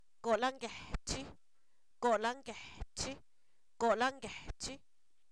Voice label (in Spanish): yema de huevo, Zapoteco, san miguel albarradas; alimentos